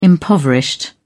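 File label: "impoverished", British English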